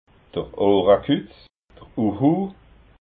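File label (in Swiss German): "ohrakutz", Alsatian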